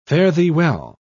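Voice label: "fare-thee-well", British English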